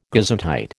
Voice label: "gesundheit", American